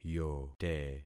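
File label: "yote", concatenated syllables; Affixing experiment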